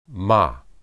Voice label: "mah", Hebrew

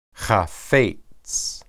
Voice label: "haphets", Hebrew

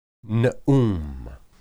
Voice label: "neum", Hebrew

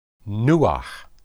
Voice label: nuah, hebrew

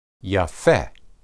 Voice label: "yapheh", Hebrew

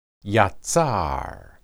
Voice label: "yatsar", Hebrew

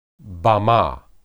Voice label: bamah, hebrew

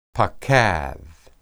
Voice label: "paqad", Hebrew

